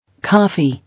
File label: "Coffee", English